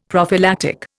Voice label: "prophylactic", Indian; Dictionary